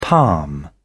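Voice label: "palm", British English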